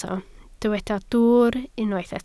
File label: "dwwr", Welsh